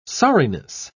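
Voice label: "sorriness", British English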